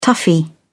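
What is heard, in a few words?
"toughie", English, British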